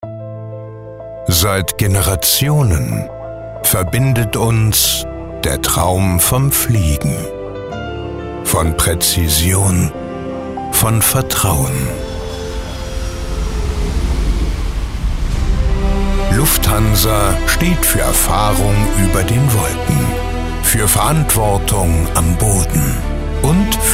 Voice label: sprecher fuer erklaervideo; deutscher Werbesprecher; Lufthansa

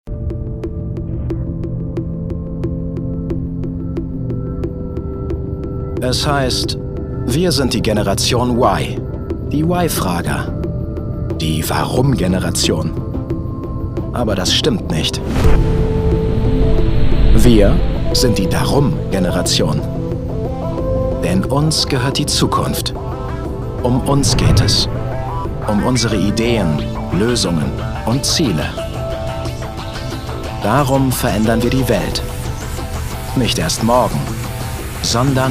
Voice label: Imagefilm

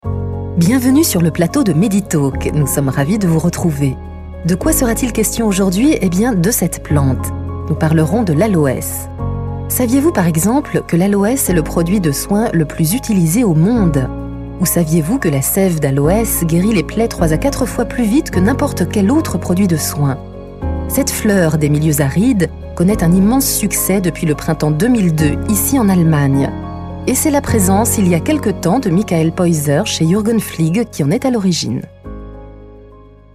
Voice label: Medi Talk, franzoesische sprecherin; french voice-over talent female

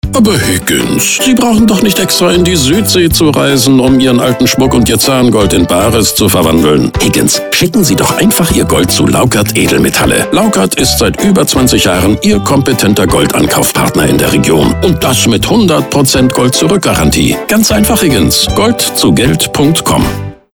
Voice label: Radiospot Beispiele Juweliere & Schmuck, Schnell, klar, vorteilsorientiert, Musik; Laukart Gold zu Geld Bares

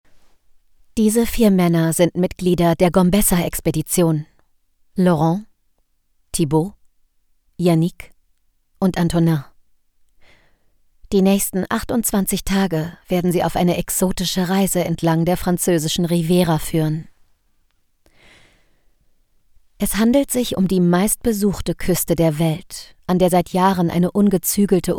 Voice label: Doku Tiefseeexpedition

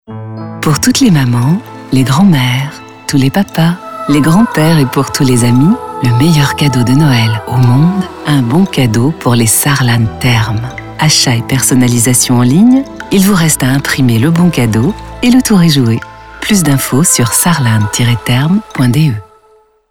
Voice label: Therme Gutscheine FRA Musik, franzoesische sprecherin; french voice-over talent female; Saarland Therme

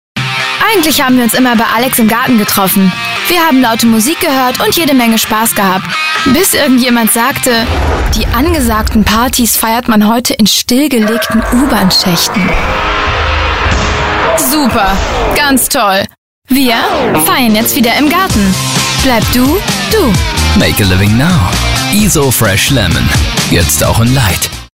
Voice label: moderne junge authentische sprecher stimme gen z

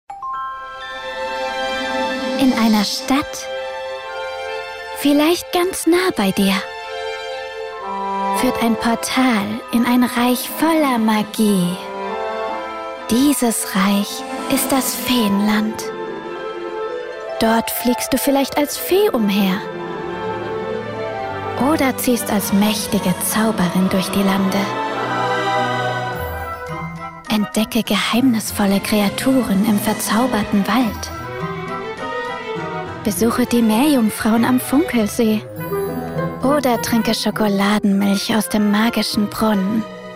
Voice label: moderne junge authentische sprecher stimme gen z

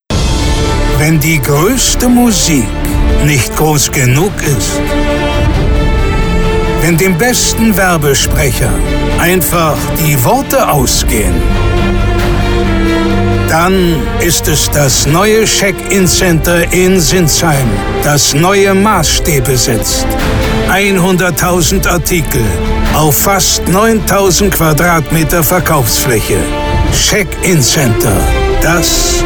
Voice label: radiospot

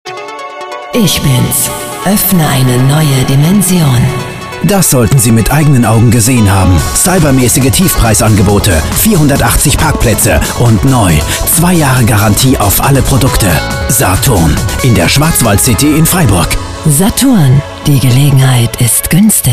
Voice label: Radiospot Beispiele Technik & Elektronik, Modern, innovativ, präzise; Saturn Image

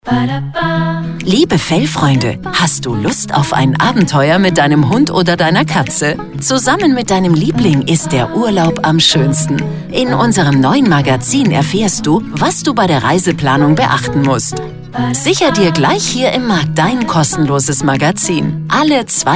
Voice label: Funkspot; Audio Branding – Akustische Markenführung – Sound Logo – Audio Logo; Fressnapf

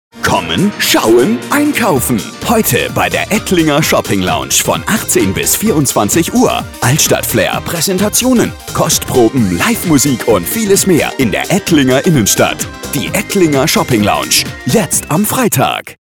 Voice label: Shopping Lounge, radiowerbung; Ettlinger

